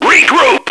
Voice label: regroup, radio, male